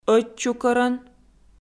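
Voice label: "ӧтчукӧрӧн", Komi, female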